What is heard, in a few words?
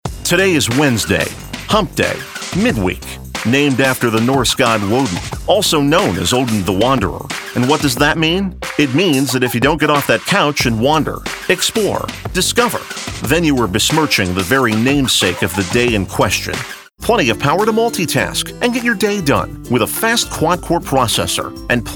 Commercial